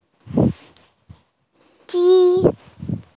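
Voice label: "ji1", Mandarin Chinese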